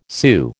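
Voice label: "Sioux", American English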